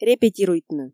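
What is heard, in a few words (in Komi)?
"репетируйтны", Komi, female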